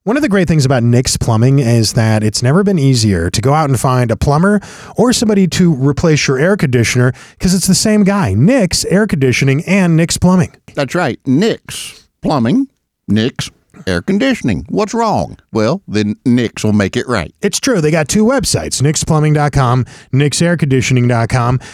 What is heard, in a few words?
RADIO AD